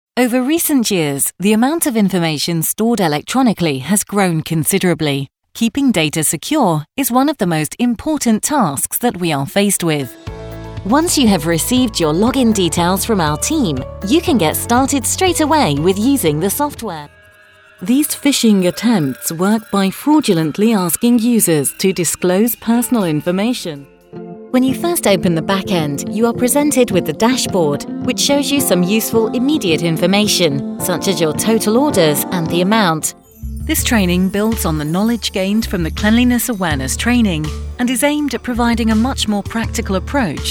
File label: eLearning